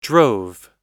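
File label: "drove", American English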